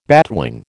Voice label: "batwing", American

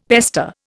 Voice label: "pester", Indian; Dictionary

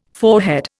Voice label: "forehead", Indian

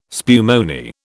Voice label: "spumoni", British

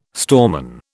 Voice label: "storeman", British

